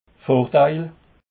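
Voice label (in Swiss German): "vordeil", Alsatian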